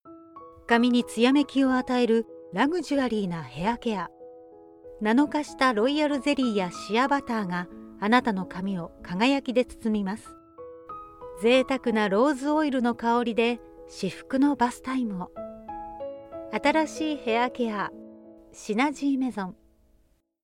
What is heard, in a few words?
Japanese Shampoo